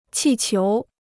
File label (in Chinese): "气球", Chinese